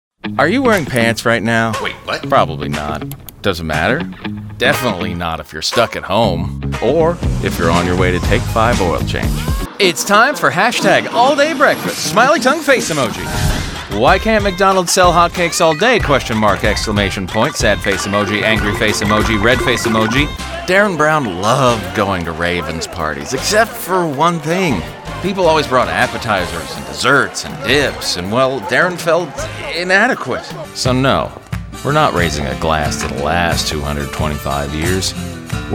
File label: Commercial